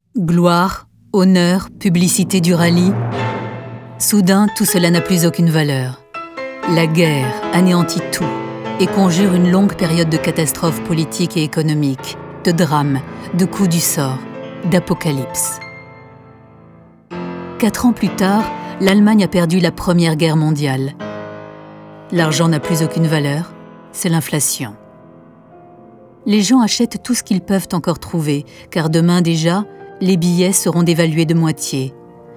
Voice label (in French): DOCUMENTAIRE